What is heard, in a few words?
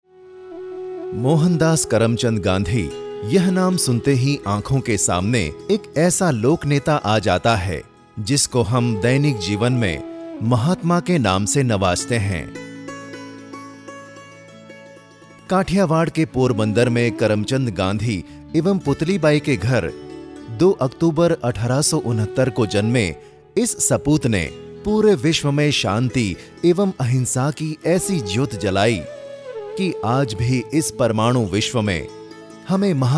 Hindi; for DD National; Gandhi ji